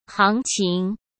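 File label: "行情", Mandarin Chinese; chinese flashcards; hsk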